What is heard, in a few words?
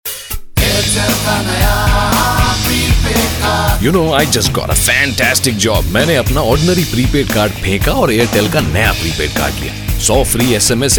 male voice; airtel prepaid card